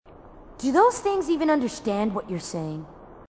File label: female marine, marine tough